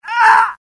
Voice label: death, UK; mohaa; dialogue